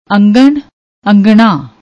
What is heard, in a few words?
"aungan", Punjabi; ਅੰਗਣ